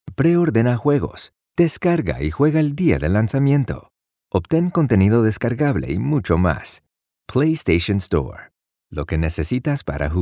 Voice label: spanish latin american e-learning

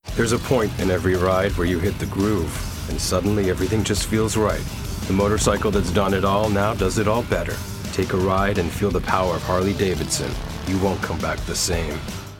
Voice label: english american automotive

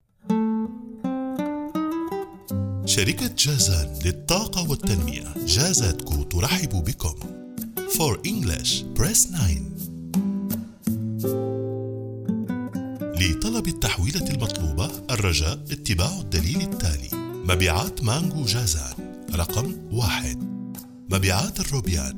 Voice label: arabic ivr

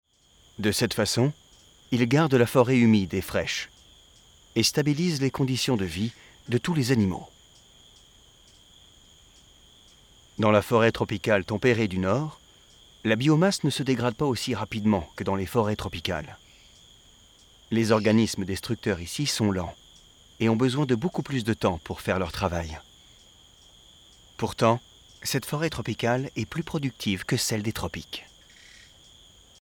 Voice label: french documentaries

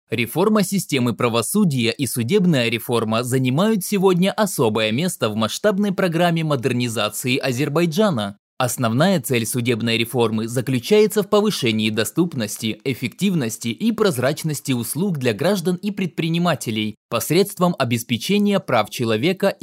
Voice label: russian e learning

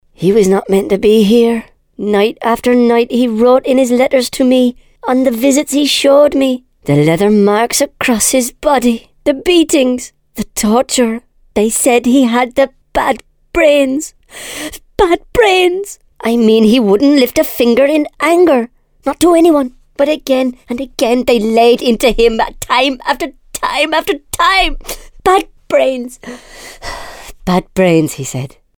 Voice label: english british podcasts

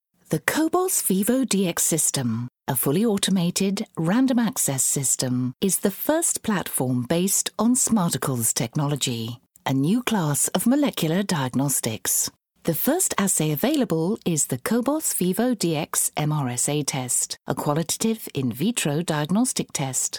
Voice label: english british e-learning

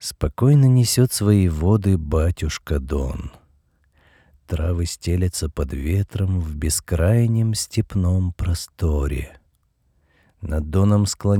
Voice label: russian audiobooks

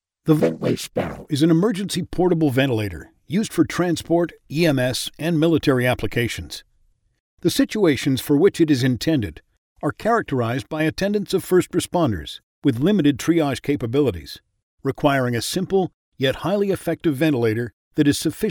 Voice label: English Canadian narration

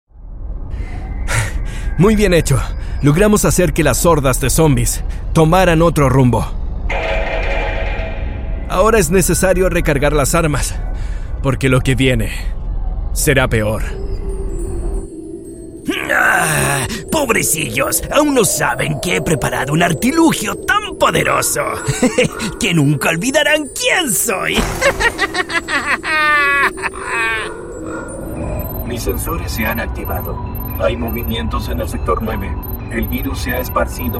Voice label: spanish latin american video games

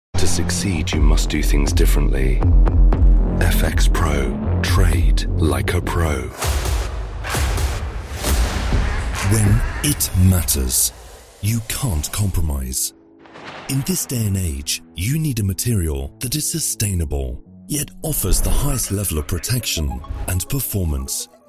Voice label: English british movie trailers

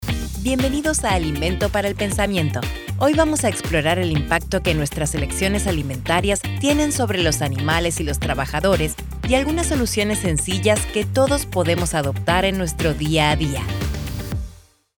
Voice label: spanish latin american explainer videos; Buenos Aires, Argentina

